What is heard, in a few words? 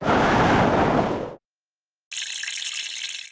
pirate shaken; rimes